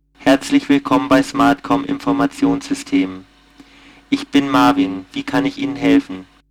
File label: Sine fast; SmartKom; Prototypen Stimme